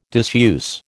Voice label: "disuse", American